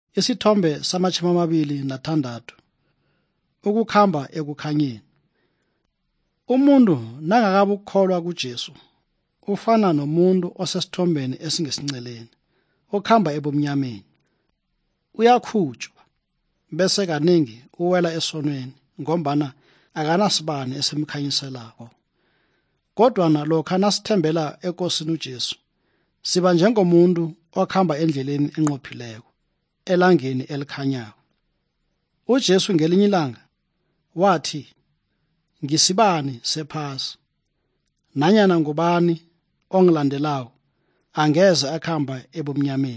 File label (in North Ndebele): good news ndebele southern, drama; bible; for zimbabwe